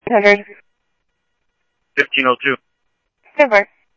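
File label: police department, scanner audio trunk; ThompsonPeakHigh; SCTSDLE PD - PD2 ScottsdalePolice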